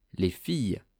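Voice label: "les filles", French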